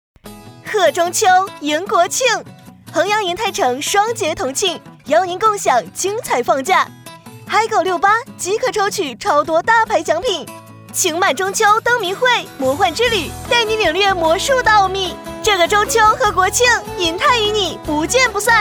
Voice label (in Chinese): 促销广告; 银泰城双节同庆